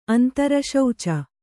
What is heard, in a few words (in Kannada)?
"ಅಂತರಶೌಚ", kannada